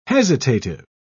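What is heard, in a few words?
"hesitative", English, British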